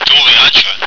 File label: clear, radio